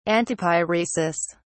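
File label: "antipyresis", British English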